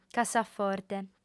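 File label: "cassaforte", female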